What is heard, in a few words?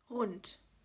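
"Rund", German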